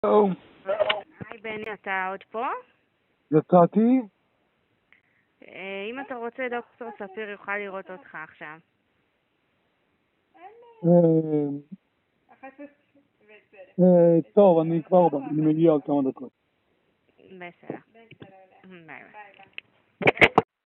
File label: call recording